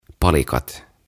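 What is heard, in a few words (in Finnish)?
"Palikat", suomeksi; OTAVA KONSERNI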